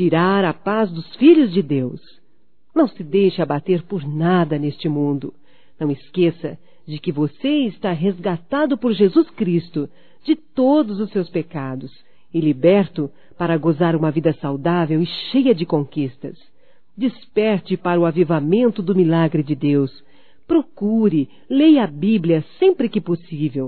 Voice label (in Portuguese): Força e Otimismo Feminino; Telemensagens 2017